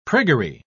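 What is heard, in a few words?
"priggery", British English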